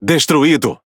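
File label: Brazilian Portuguese Speech Pilots Torpedo Destroy; World Of Warships; PT BR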